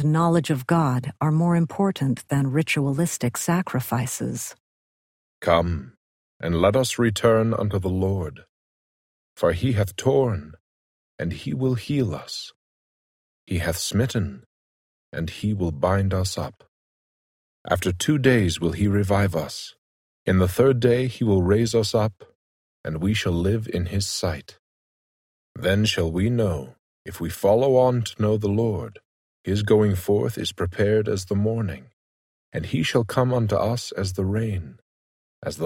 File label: hosea male voice; the old testament